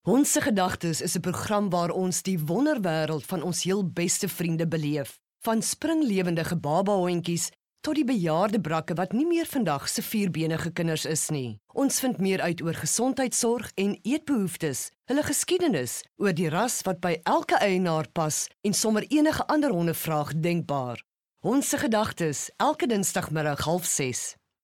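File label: Afrikaans F Explainer, female; voice over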